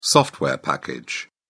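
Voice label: "software package", English, British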